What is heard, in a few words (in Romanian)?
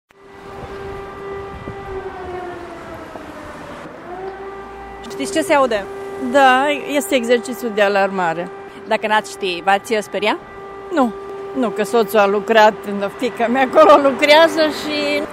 stiri vox alarma; Miercurea Alarmelor; târgumureșeni; Radio Romania Targu Mures